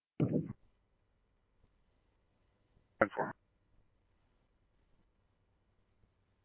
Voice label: Scottsdale Police, scanner; SPD - PD2; RWC; H-Scottsdale

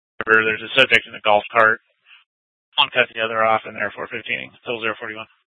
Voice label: Scottsdale PD Sth Dispatch, scanner

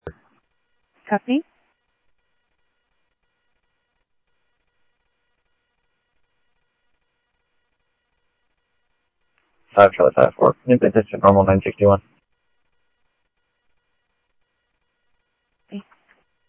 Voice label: Mesa Simulcast, MESA PD, scanner audio

